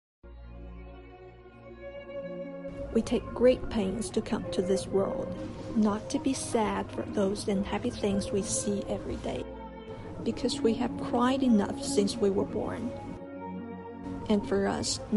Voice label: 英文 独白

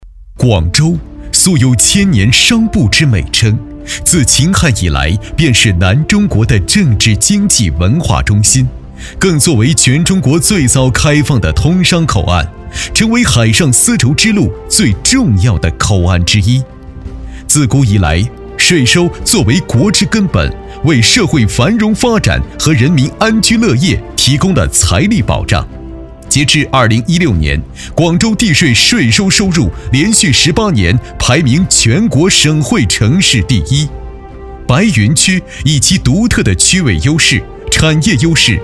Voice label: 党建 专题 地税 年轻 大气; 广州

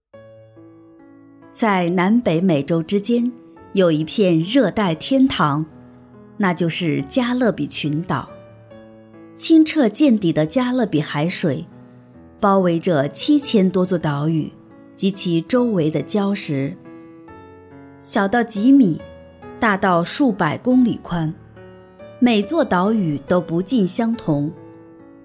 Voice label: 专题片; 加勒比群岛

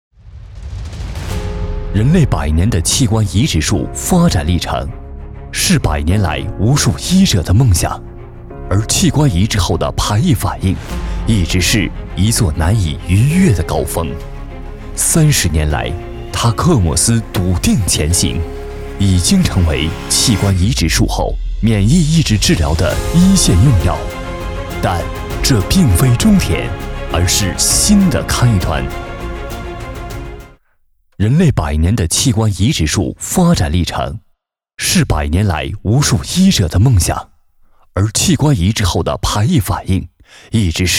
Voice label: 大气宣传器官移植术发展历程

